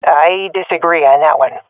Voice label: disagree, swat fem; vo; Counter Strike Model Pack; CS:GO

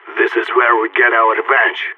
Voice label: pep talk; Counter Strike; CS:GO; phoenix

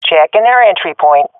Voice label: at enemy spawn, swat fem; Counter Strike Model Pack; CS:GO

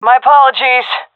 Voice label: sorry, seal fem; vo; Counter Strike Model Pack; CS:GO

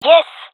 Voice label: cheer, gendarmerie fem; vo; agents

